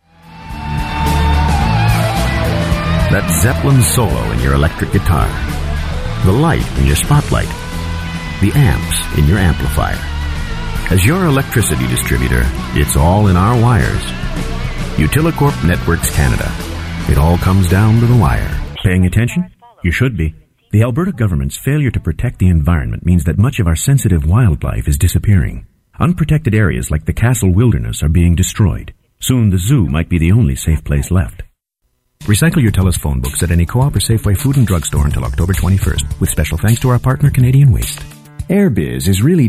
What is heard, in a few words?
Commercial